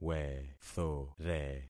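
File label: "wethore", concatenated syllables; Affixing experiment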